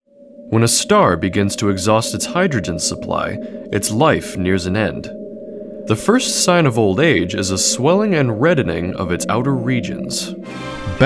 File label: Narrations